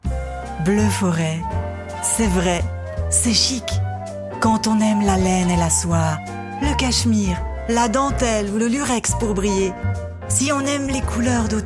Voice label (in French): Voix Off PUB; Bleu Foret